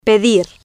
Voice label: "pedir", Spanish